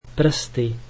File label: "fingers", slovak